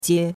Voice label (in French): "jie1", chinois; Cours de Chinois